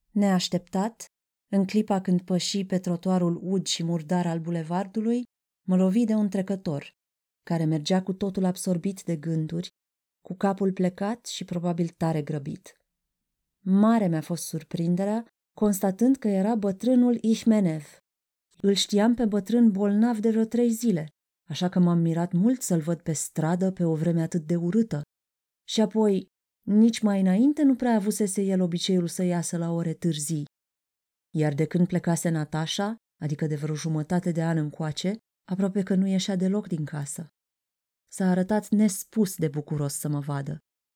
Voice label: Romanian F Narration

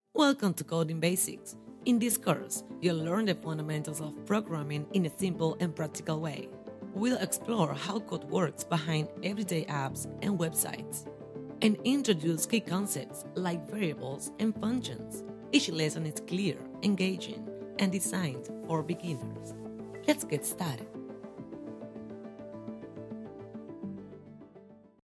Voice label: English EU, F, elearning, accent of spain

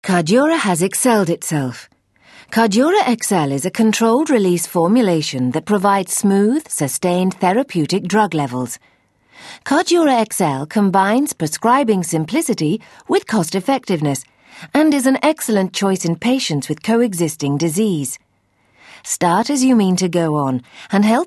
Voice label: English F Medical

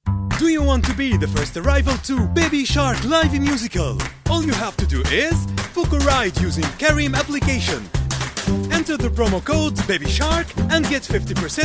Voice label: Middle East English M AD

